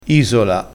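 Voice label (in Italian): "isola", vocabolario dialettale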